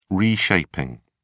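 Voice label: "reshaping", British English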